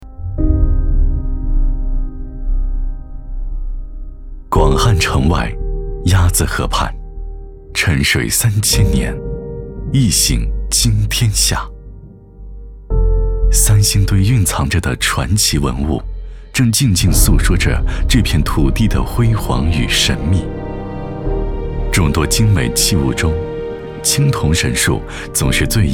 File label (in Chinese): 男 纪录; 青铜神树